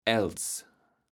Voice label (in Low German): "Äls", kaevels platt